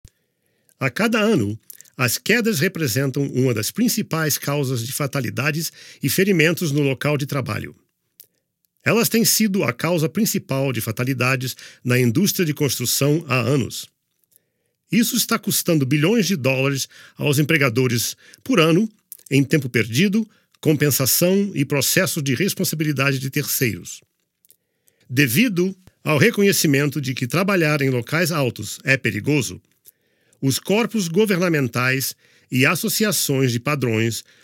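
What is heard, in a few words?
web portuguese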